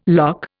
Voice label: "lough", Indian